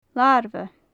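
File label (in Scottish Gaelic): "larbha", Gaelic; larvae; larbhathan; Faclan Nàdair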